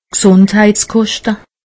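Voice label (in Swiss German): "gsundheitskoste", Alsatian; medecine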